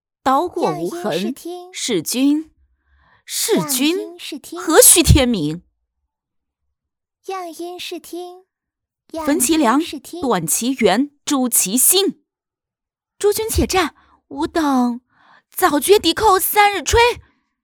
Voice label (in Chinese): 严厉 温柔